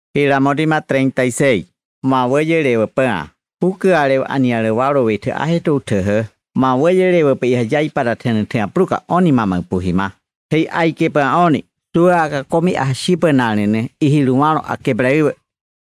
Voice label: audiobook Yanomamo; King of Glory; Wawäyälewä Bää